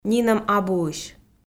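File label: "нинӧм абуысь", Komi, female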